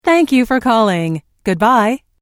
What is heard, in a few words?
Telephone prompt: Thank you for calling, goodbye, Pre-recorded telephone on-hold message; Recorded Voice Sound Effects